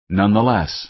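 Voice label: "nonetheless", American English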